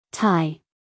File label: "tie", us female; everyone-can-use-english